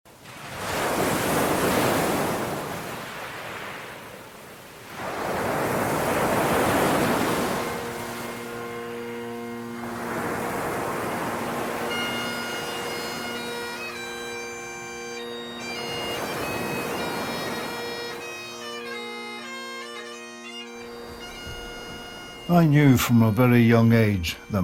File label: Audioguide; Colleville-Montgomery